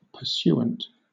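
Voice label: "pursuant", English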